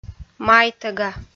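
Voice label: "майтӧга", Komi, female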